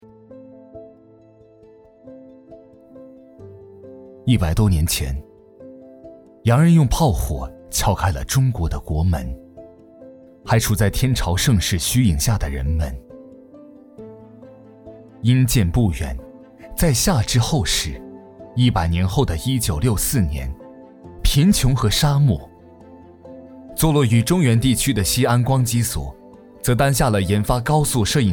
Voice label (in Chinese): 走心旁白逐渐澎湃; 光机所